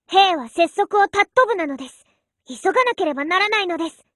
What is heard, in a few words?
warcry